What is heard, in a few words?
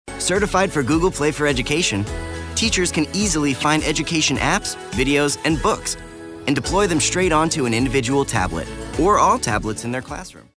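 English US, male, narration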